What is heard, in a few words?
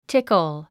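"tickle", 英単語 発音; tíkl チッコォ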